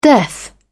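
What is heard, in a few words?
"death", British English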